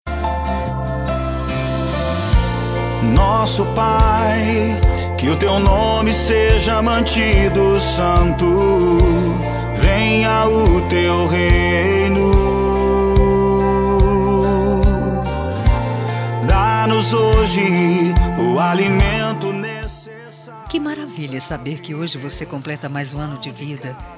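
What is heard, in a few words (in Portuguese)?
aniversario evangelico feminino, telemensagem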